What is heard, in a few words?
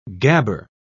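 "gabber", British English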